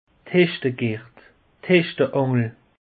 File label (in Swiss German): "hechtegert", alsace; peche